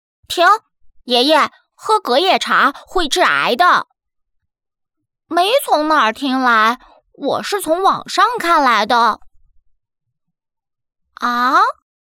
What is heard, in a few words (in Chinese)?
短剧配音; 隔夜茶真的致癌吗